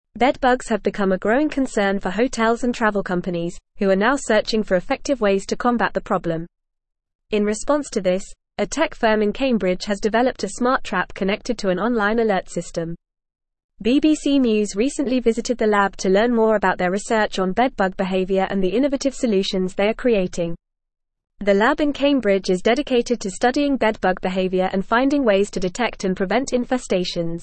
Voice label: English Newsroom Advanced FAST Reading; Smart Trap Innovative Solution to Combat Bedbugs in Hotels